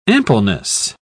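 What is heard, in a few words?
"ampleness", British English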